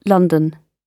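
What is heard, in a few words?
"london", British English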